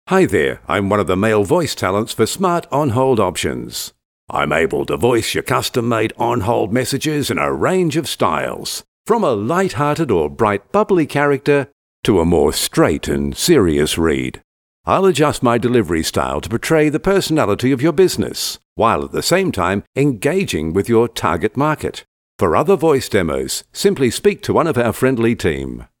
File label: MALE